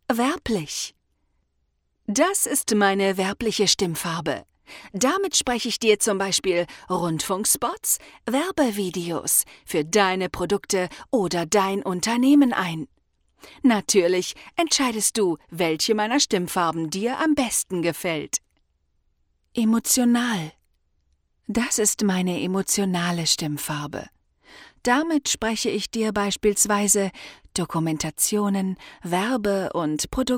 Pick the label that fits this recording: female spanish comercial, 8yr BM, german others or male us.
german others